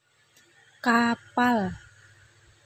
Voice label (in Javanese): "Kapal", Basa Jawa; Contoh pengucapan